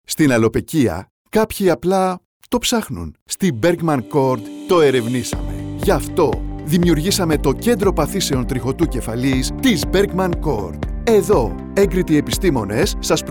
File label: radio spots; alwpekia kentro pathisewn to ereunisame; athens